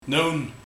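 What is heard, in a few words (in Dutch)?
"Noen", dialect; Na het middageten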